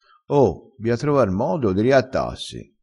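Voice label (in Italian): "riattassi", vocabolario dialettale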